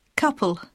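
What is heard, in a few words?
"couple", English; poitiers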